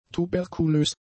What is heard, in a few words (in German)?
"tuberkulös", German; adjectives